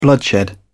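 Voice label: "bloodshed", British English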